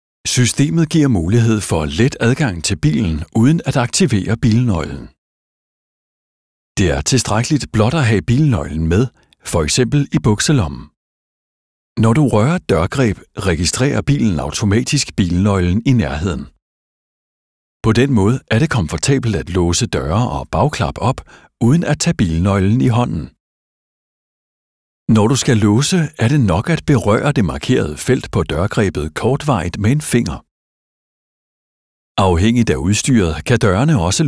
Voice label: danish automotive